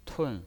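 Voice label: "tun", pinyin voice, fourth tone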